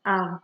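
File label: "am", Nigerian Pidgin, female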